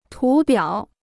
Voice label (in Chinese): "图表", Chinese